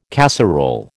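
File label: "casserole", American English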